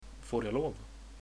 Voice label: dance, swedish